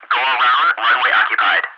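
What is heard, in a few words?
go around runway occupied, English ATC Messages; DCS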